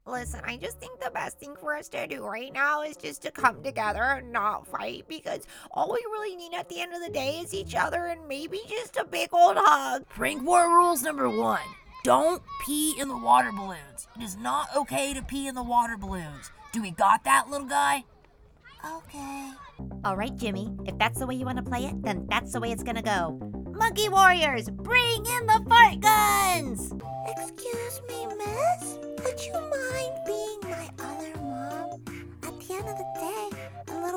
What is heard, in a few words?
female english usa multiple accents animation compilation